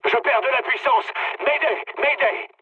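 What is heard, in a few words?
French damaged engine mood med; War Thunder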